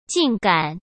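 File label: "竟敢", Mandarin Chinese; hsk; chinese-flashcards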